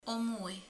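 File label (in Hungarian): "száj", Hungarian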